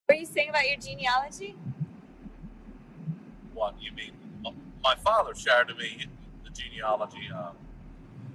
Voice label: USA North Carolina Barrieer Islands Southern Male 54